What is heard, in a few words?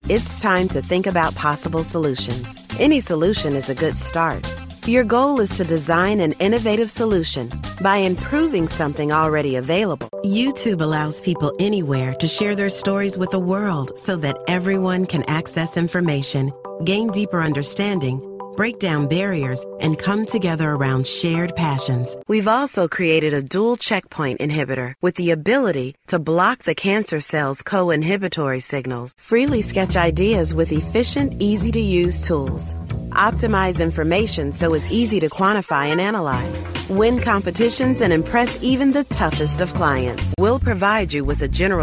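English US, E-learning; GoLocalise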